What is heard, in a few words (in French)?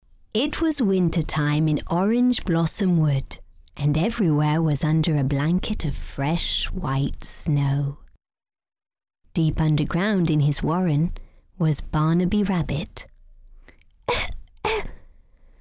narration pour enfants Anglais, children’s narrative (English); Barnaby Rabbit